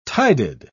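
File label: "tided", British English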